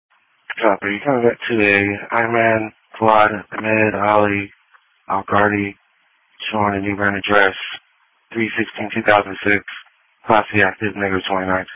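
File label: Police Patrol (Dispatch); New Bern Police Patrol 1 (Dispatch) (TG 200)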